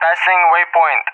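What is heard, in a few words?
"passing waypoint", English, RUSSIA; Messages; DCS; Allied Flight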